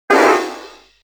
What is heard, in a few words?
grunt